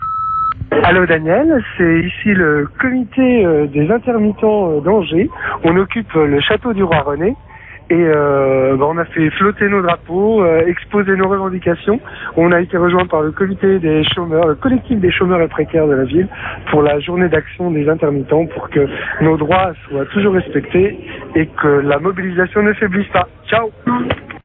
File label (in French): Manif intermittents répondeur